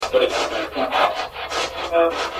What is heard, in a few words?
Ghost box session, "Get Out"